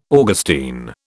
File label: "Augustine", British